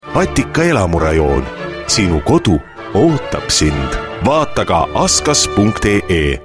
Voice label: (VYR) Estų balsas

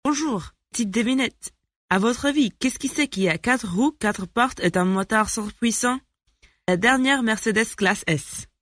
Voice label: (MOT) Prancuzu balsas; moteris

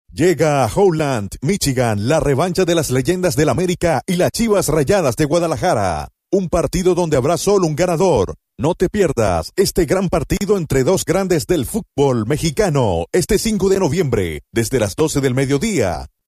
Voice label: Ispanu balsas, vyras

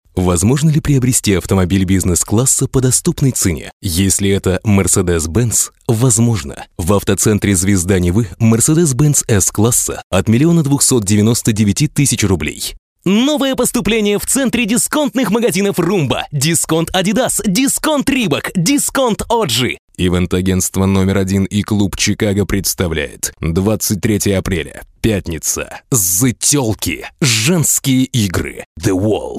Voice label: Rusiškas balsas, vyras; rusu

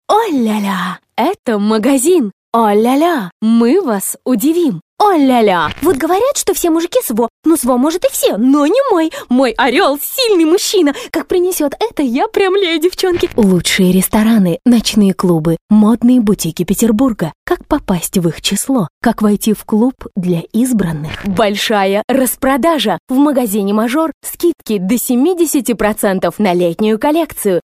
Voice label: (MOT) Rusiškas balsas; rusu; moteris

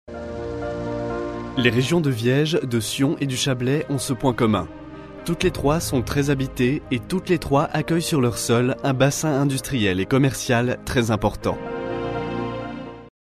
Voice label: VYR Prancuzu balsas, vyras

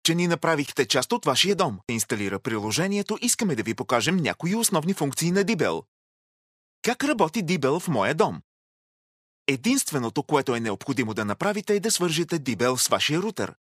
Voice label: (VYR) Bulgaru balsas; vyras

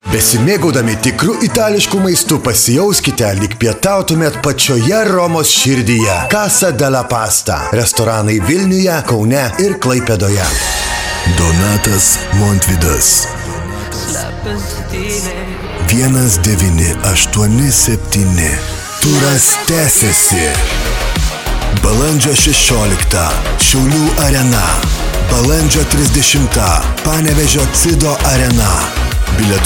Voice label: balsai lietuviu vyras

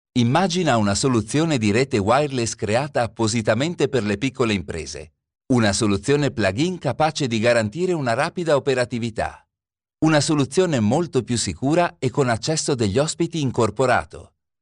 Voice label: VYR Turku balsas, vyras

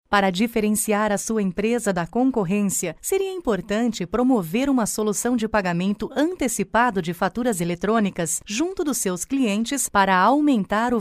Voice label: (MOT) Brazilu balsas, moteris

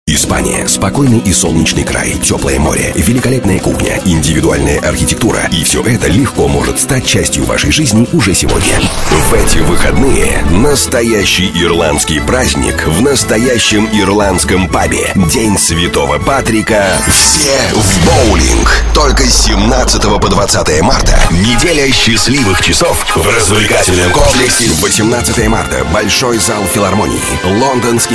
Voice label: Rusiškas balsas, vyras

